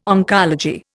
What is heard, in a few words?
"oncology", Indian